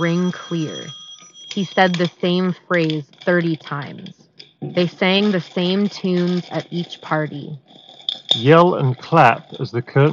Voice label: ATH TWX9 Office microphone sample; Audio technica